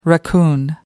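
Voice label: "raccoon", English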